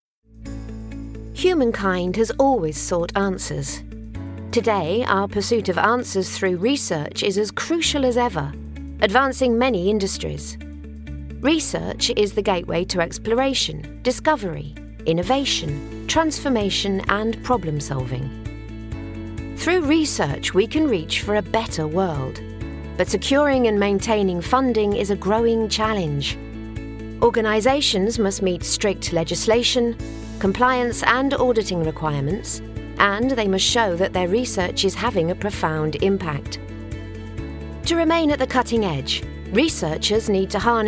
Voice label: corporate

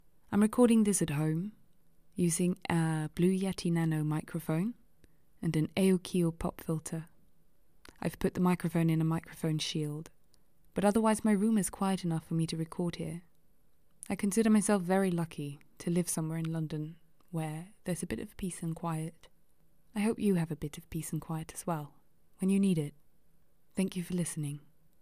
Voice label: Home Studio

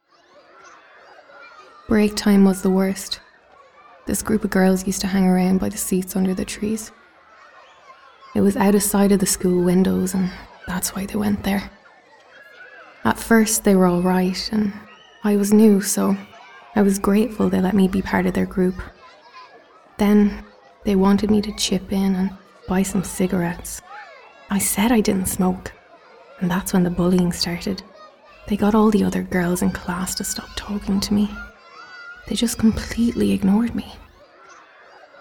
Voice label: Drama; 20s-30s; Male; Irish

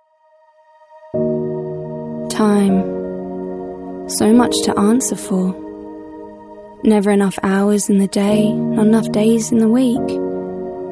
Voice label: Commercials

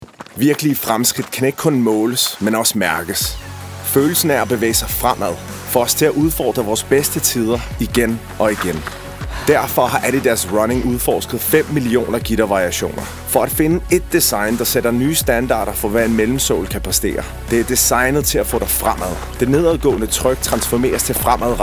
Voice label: Danish

